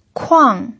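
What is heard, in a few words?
"kuang" fourth tone, pinyin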